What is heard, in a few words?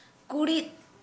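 "eagle", Santali, female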